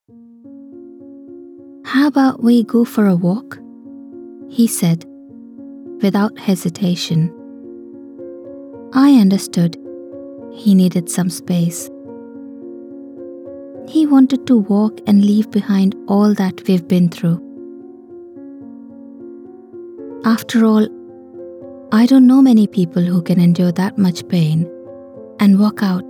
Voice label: Audiobook english